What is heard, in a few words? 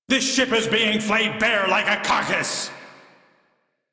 Crew Ship Low, Male; Dialog; en; Warframe; Railjack Crew; Arbiters Hexis